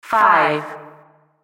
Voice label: Announcer; Pitch Black Audio Pong